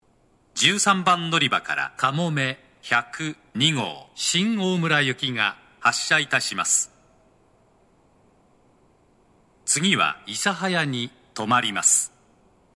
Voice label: 長崎 西九州新幹線ホーム 自動放送, 13番のりば発車放送

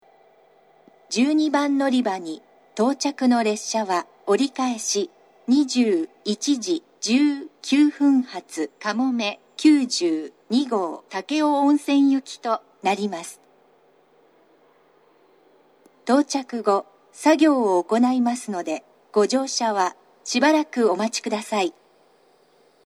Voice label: 長崎 西九州新幹線ホーム 自動放送, 12番のりば接近放送, 折り返し放送; かもめ92号 武雄温泉行き

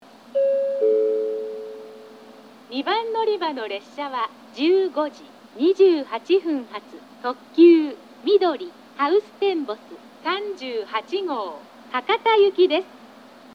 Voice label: 到着放送（合成音声案内）※雑音多数; 2番のりば停車中放送（みどり・ハウステンボス38号 博多行き）; takeoonsen

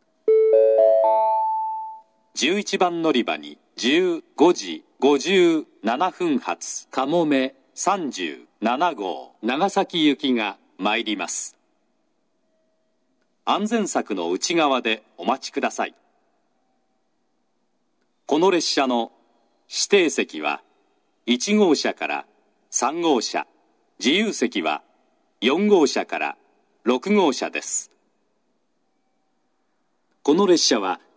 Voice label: 11番のりば接近予告放送; 西九州新幹線; かもめ37号 長崎行き; takeo onsen

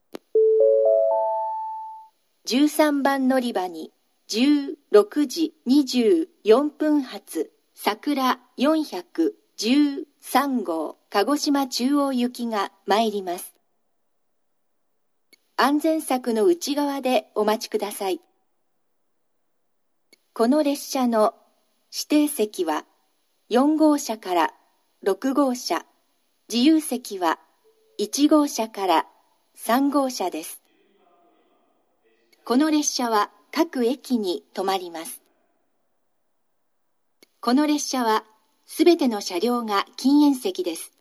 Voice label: 新水俣, 13番のりば接近予告放送; さくら413号 鹿児島中央行き; shin minamata

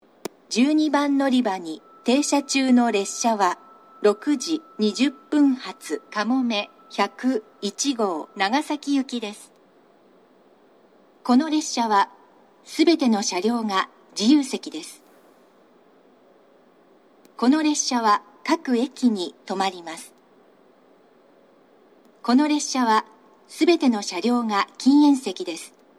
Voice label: 12番のりば停車中放送; 始発列車が到着し、到着放送とほぼ同じタイミングですぐ流れます。また、放送は1回だけです。; かもめ101号 長崎行き; shin omura

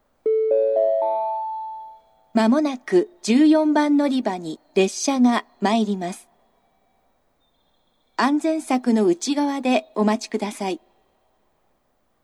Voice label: 長崎 西九州新幹線ホーム 自動放送, 14番のりば接近予告放送（当駅止まり）

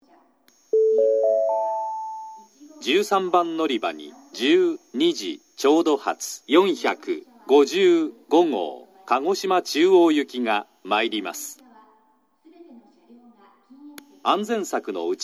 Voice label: 13番のりば予告放送, 新幹線ホーム; 新鳥栖; 団体455号 鹿児島中央行き; 全車指定席

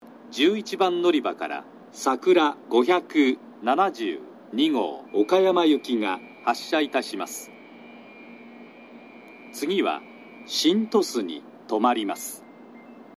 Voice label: 11番のりば発車放送（さくら572号 岡山行き）, 久留米（新幹線ホーム）

